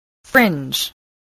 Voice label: "fringe", English voice, spelling

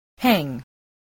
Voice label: "hang", English, spelling

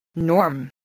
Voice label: "norm", voice, spelling

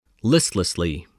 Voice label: "listlessly", spelling

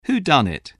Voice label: "whodun(n)it", voice, english

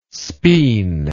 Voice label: "spean", english

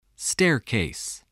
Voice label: "staircase", voice spelling

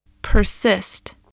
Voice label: "persist", voice, English

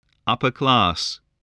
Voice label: "upper-class", English

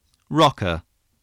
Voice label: "rocker", English spelling

